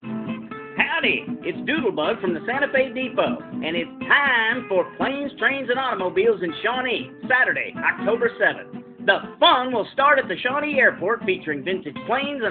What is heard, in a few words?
Radio Ad